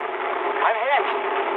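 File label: aircraft destroyed mood high, speech English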